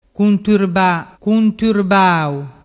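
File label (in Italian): "cunturbabau", dialect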